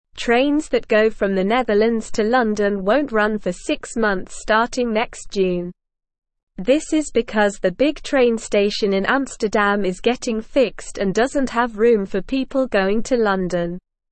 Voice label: English Newsroom Beginner SLOW Reading; No Trains from Netherlands to London for 6 Months